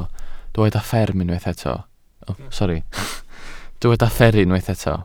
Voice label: "fferru", Welsh